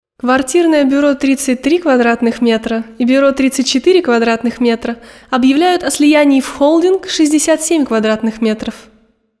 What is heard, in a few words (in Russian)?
Diktori studii Reclama; Квартирное бюро "33 квадратных метра"...